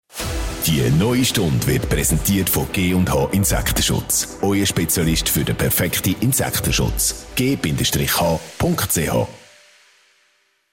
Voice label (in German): Stundensponsoring; Virgin; G&H Insektenschutz; virgin radio switzerland